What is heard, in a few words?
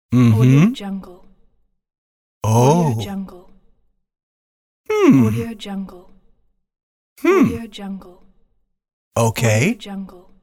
fatherly male expressions